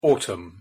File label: "autumn", en